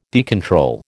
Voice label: "decontrol", American

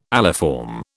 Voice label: "aliform", British

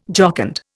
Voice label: "jocund", Indian

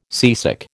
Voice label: "seasick", American

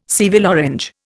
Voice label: "Seville orange", Indian

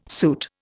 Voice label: "suit", Indian

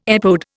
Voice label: "epode", Indian

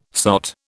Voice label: "sot", British

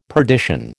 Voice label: "perdition", American

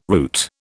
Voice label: "route", British

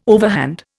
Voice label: "overhand", Indian

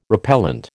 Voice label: "repellent", American

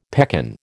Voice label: "pekan", American

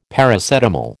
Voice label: "Paracetamol", American

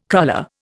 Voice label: "cruller", Indian

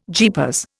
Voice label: "jeepers", Indian

